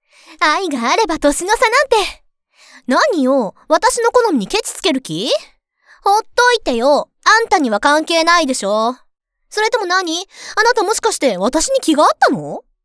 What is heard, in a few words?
Voice Female